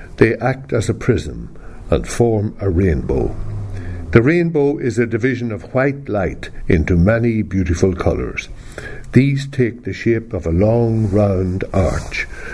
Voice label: passage, dialects ni